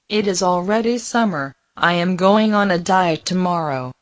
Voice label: Mandarin Conversation